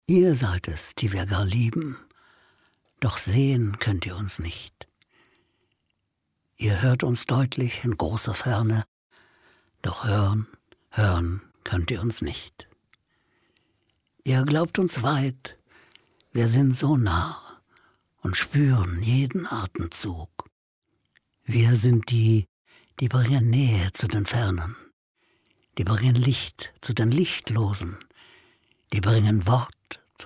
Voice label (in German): poetisch, maenner